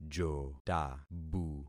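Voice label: "jotabu", concatenated syllables; Affixing experiment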